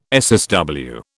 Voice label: "SSW", British